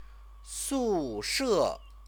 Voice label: "sushe", Chinese